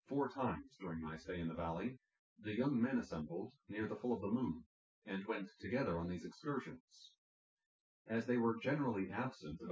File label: male synthconv; SiSEC08; SiSEC underdetermined